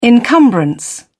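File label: "encumbrance", British English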